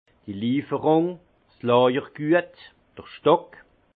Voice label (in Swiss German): "lieferung", alsace; vin; service de livraison